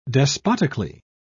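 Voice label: "despotically", British English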